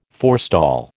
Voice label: "forestall", American